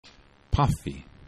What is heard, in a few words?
"pafi", esperanto